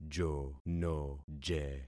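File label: "jonoje", concatenated syllables; Affixing experiment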